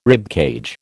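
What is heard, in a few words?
"ribcage", American English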